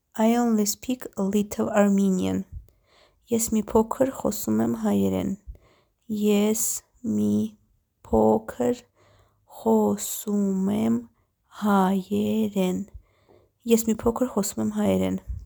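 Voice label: English "I only speak a little Armenian", Armenian "Es mi pokr khosum em hayeren"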